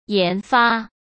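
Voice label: "研发", Mandarin Chinese; chinese-flashcards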